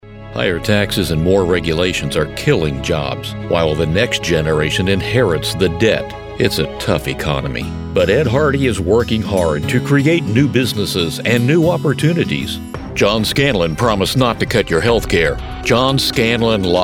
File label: Political American man